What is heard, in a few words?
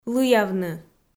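"лыявны" Komi, female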